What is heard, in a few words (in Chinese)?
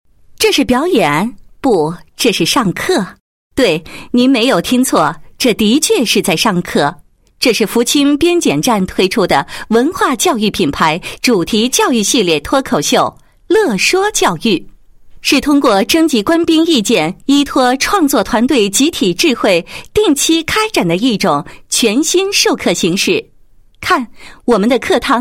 女, 栏目, 脱口秀; 乐说教育